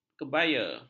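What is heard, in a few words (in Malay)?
"kebaya", Malay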